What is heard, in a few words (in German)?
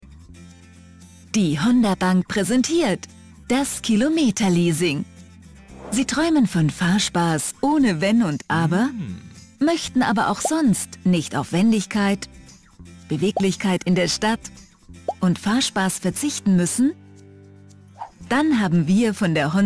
Erklarfilm; Honda Bank